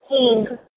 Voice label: "hing", Armenian

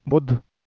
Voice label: "bhudhM" PIE

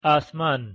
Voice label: "asman", Persian

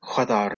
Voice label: "hwathar", Proto West Germanic

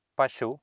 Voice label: "pashu", Sanskrit

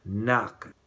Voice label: "nak", Romani

